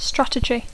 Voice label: "strategy", English

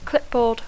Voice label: "clipboard", English

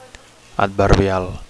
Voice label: "adverbial", Catalan

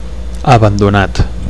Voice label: "abandonat", Catalan; abandoned; deserted; neglected

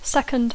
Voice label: "second", English

